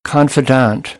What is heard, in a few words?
"confidant", American English; ɑː - ɒ